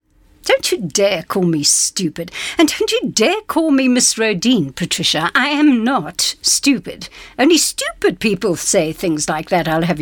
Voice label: English Conversational